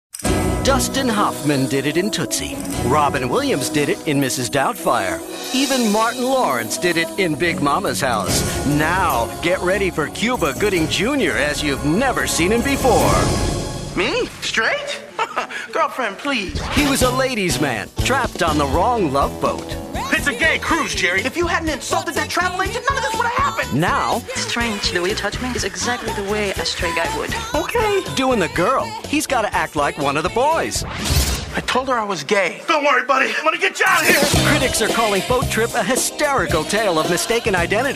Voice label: Trailer